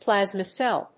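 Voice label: "plasma cell", English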